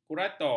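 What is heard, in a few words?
"kurator", Malay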